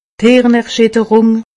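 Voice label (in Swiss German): "hirnerschitterung", Haut Rhin; medecine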